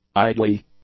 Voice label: "idly", English; adjectives

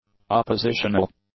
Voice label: "oppositional", English; adjectives

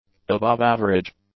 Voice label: "above-average", english; adjectives

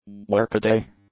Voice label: "workaday", english; adjectives

